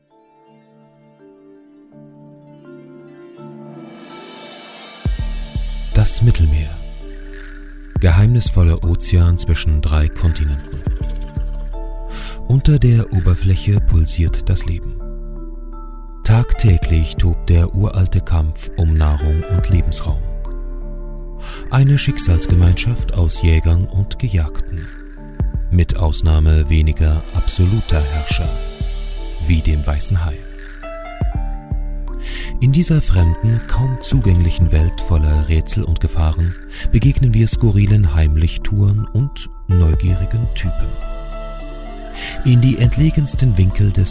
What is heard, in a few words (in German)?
dokumentation